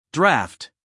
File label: "draught", us male